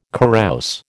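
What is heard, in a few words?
"carouse", American English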